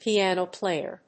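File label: "piano player", English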